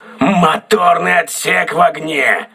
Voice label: voice message engine room damaged, crew ships russian